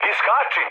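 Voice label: Serbian bailout mood med, speech; War Thunder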